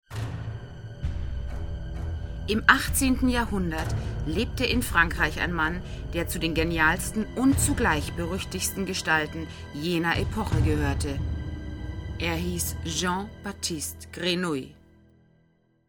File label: Trailer